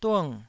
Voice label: "dung4", Taiwanese